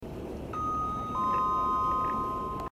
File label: announcement on a wharf; WoWInterface; Gnosis